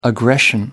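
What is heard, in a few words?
"aggression", English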